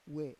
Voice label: "we", Roon